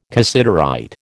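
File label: "cassiterite", American English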